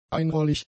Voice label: "einrollig", German; adjectives